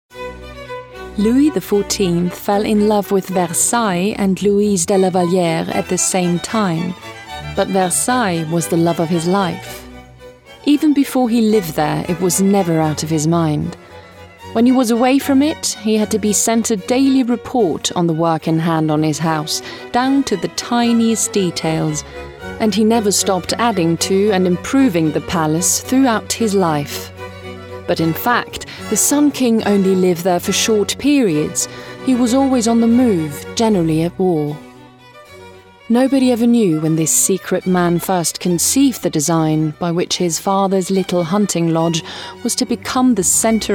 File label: Doc English